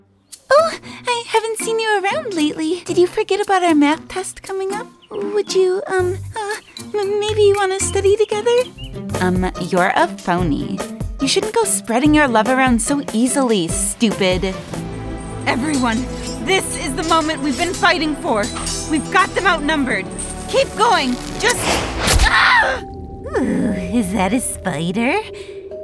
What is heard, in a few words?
Animation